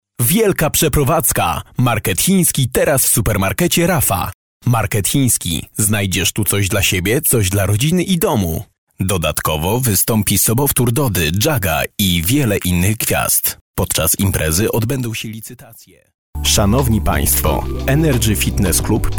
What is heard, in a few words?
male polish voice over talent sales